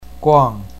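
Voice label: "guang4", Chinese pinyin, fourth tone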